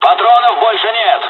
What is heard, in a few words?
dialog, dialogs russian; War Thunder